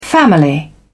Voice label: "family", English